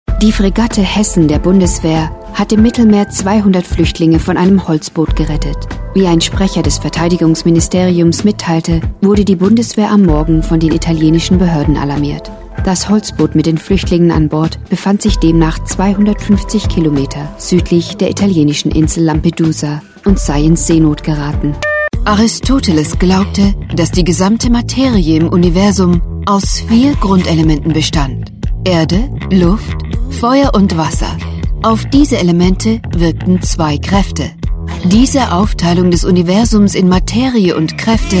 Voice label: germany voice over female commercial